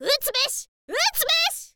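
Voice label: utsubeshi utsubeshi, Japanese, girl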